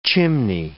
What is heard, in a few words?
"chimney", English